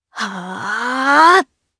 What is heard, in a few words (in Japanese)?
Vox Casting jp; kingsraid; voices heroes jp